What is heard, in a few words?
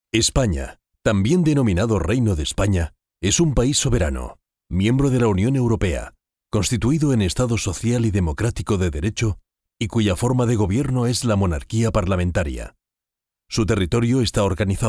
male spanish castilian